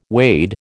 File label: "wade", American